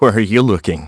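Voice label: Vox Skill, voices heroes English; Riheet; kings raid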